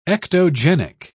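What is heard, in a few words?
"ectogenic", British English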